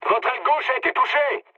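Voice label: left wing, mood medium, French; War Thunder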